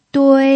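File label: "dui", Mandarin Chinese, first tone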